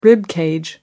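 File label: "rib cage", British English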